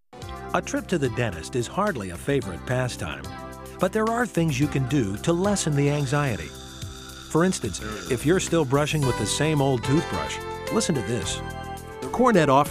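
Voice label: narration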